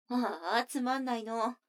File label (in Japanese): 女の子 台詞